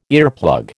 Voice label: "earplug", American